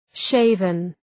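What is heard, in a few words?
"shaven", English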